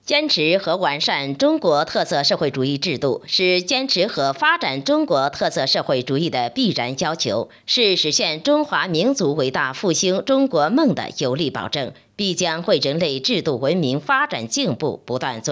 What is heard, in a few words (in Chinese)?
新闻类